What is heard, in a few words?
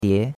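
"die2", Chinese; Cours de Chinois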